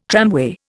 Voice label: "tramway", Indian